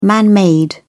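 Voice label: "man-made", English, uk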